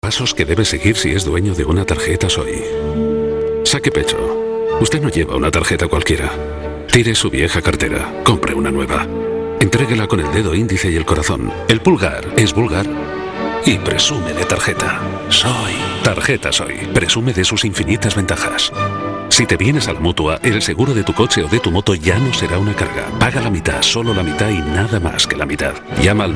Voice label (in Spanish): comercial